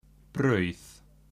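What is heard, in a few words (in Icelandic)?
"barud", Icelandic; matarhefti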